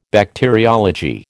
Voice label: "Bacteriology", American